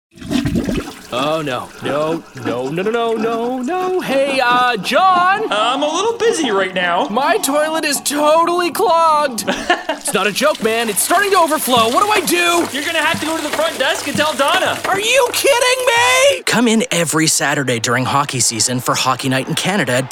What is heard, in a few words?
VO Commercial